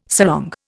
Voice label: "sarong", Indian

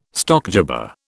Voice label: "stockjobber", British

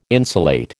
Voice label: "insulate", American

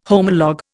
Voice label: "homolog", Indian

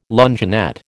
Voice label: "luncheonette", American

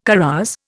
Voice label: "carouse", Indian

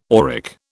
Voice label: "auric", British

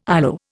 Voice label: "ILO", Indian

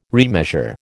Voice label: "remeasure", American

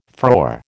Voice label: "frore", American

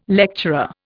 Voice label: "lecturer", Indian

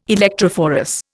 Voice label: "electrophorus", Indian

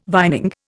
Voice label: "vining", Indian

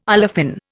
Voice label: "olefin", Indian

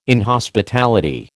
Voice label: "inhospitality", American

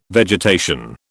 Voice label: "vegetation", British

